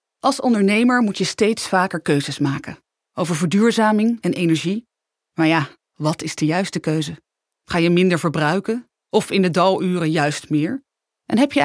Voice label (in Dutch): Stemtest Corporate